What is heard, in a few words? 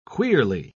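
"queerly", British English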